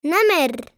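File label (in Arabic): "نمر", Arabic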